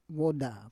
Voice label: "voda", Roon